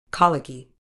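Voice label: "colicky", British English; ˈkɒl.ɪ.kiː; KOL-i-kee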